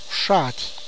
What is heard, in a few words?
"grasshopper edible", tlacochahuaya